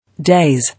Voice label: "Daze", English